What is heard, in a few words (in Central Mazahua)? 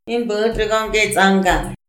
in mbu̷ntr'u̷go nge ts'anga, Mazahua